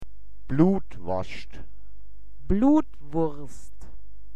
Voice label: "blutworscht", Mundart; woerterbuch

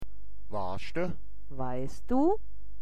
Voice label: "woaschde", Mundart; woerterbuch

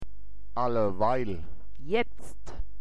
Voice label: "alleweil", Mundart; woerterbuch

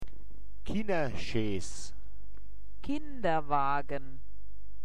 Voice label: "kinnerschees", Mundart; woerterbuch

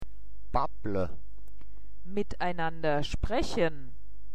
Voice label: babble, mundart; woerterbuch

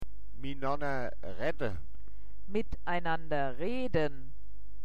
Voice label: mitenoanner redde, mundart; woerterbuch

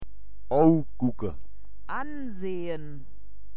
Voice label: ougucke, mundart; woerterbuch

